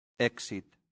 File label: "exit", Latin; santillana